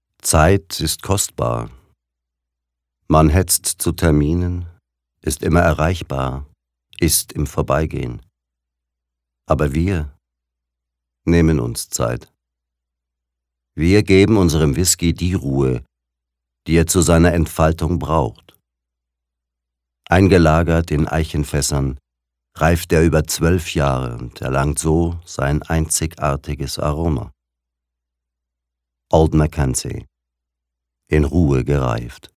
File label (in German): Sanft